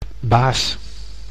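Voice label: "baas", Dutch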